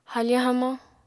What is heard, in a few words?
"hafañ hamañ", apatani